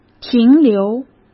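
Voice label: "停留", Mandarin Chinese; hsk; chinese flashcards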